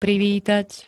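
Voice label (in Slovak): "privítať", Slovak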